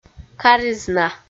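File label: "каризна", Komi, female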